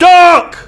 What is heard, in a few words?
Medic, male; svencoop